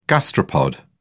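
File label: "gastropod", British English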